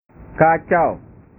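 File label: "kacau", Indonesian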